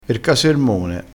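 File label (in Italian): "casermone", dialettale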